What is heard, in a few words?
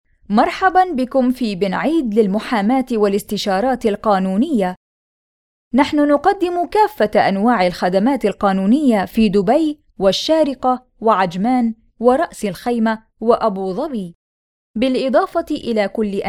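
arabic female; lektoring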